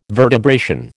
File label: "vertebration", American